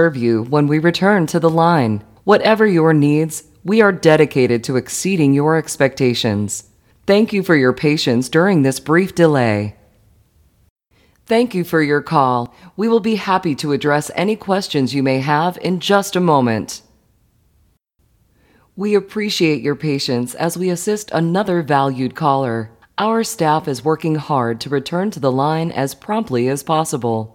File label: ON HOLD MESSAGING SAMPLES